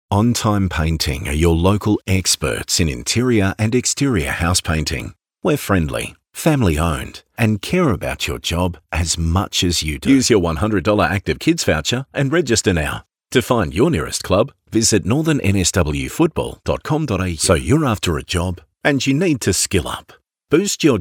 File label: Soft Sell